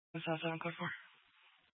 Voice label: Dispatch, scanner; Mesa PD Dpt; 4 Sprstn Dispatch

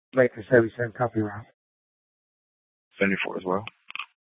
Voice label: Superstition Dispatch, Mesa PD

